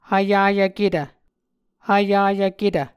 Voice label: "hvyayvketv", Muscogee